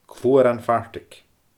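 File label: "Cluaran Phartaig", Scottish Gaelic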